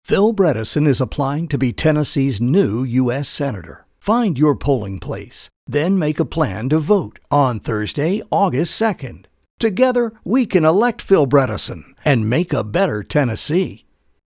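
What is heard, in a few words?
U.S. Senate Campaign Ad, male voice; Political Spots; Bredesen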